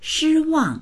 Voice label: "shi1wang4", voices

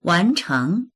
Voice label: "wan2cheng2", voices; 完成; 完成する

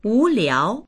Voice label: "wu2liao2", voices; wúliáo; 无聊; 退屈な、つまらない、無聊な

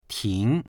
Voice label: "ting2", voices

